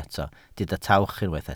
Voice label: "tawch", Welsh